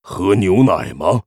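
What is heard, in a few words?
pissed, Chinese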